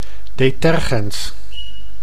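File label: "detergens", Dutch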